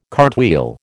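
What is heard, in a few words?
"cartwheel", American English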